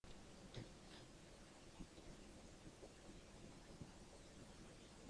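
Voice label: "book", spoken word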